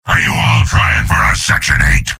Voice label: soldier jeers; mvm

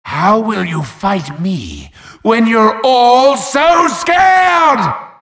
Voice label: wheel scared; halloween; merasmus

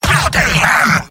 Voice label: jeers; demoman; mvm

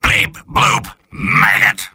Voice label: soldier robot; mvm

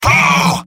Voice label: jeers; medic; mvm

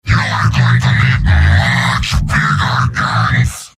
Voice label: heavy taunts; tf2; garrysmod; mvm

